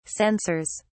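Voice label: "censors", British English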